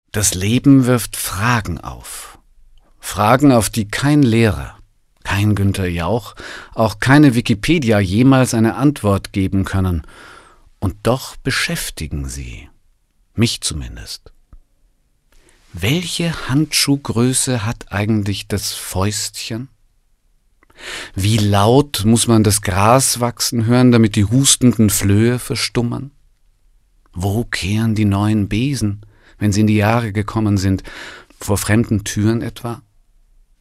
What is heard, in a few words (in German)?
Schauspiel Sanft